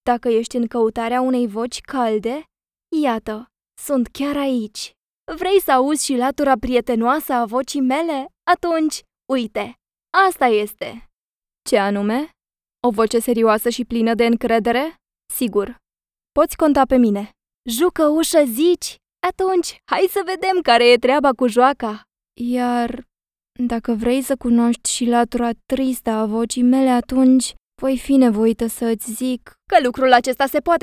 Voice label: romanian commercial